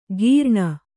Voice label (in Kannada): "ಕೀರ್ಣ", Kannada